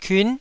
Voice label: "kiun9", taiwanese syllable

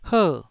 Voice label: "her4", taiwanese

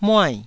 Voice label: "muai4", taiwanese syllables

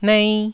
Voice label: "nei1", taiwanese syllables

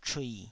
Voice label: "chui3", taiwanese

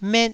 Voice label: "met", Taiwanese

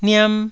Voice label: "niam1", taiwanese syllables

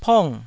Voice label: "pong4", taiwanese

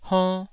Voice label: "honn2", Taiwanese syllable

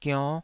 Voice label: "gionn5", Taiwanese

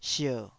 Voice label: "sier3", Taiwanese

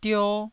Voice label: "dio1", Taiwanese syllable

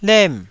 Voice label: "lem4", Taiwanese syllable

